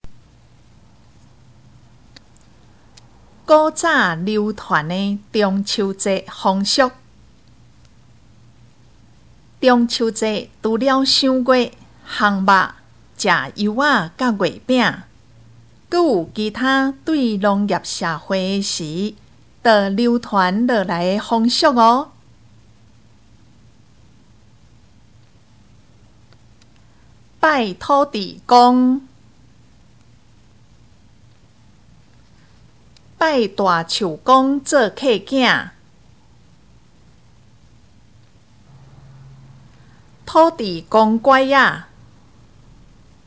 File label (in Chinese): 臺語; 中秋節